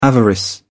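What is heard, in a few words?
"avarice", English, British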